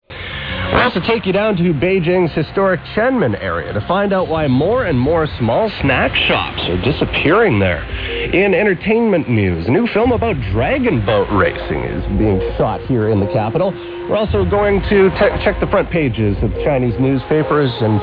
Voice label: English Transmission; CRI English Transmission